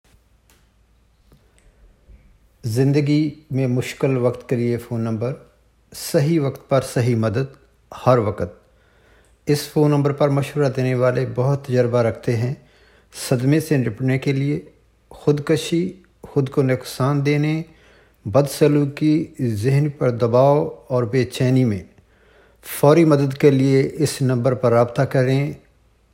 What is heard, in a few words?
Urdu recording